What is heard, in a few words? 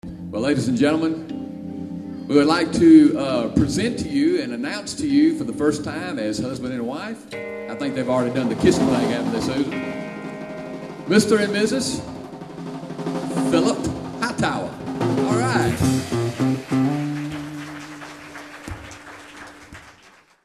Announcement